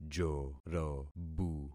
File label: "jorobu", concat syllables; Affixing experiment